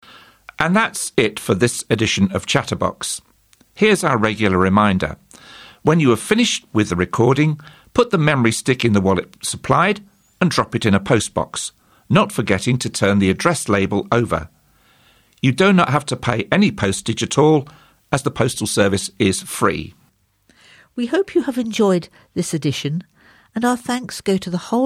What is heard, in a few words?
Closing Announcement